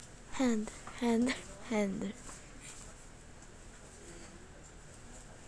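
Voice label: "handle", spoken word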